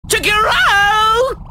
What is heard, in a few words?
voice, dubbing